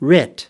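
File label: "writ", US English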